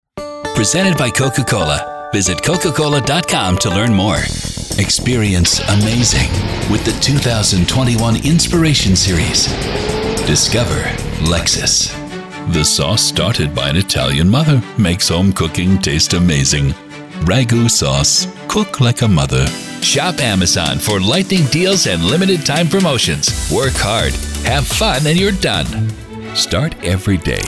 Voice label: COMMERCIAL VARIETY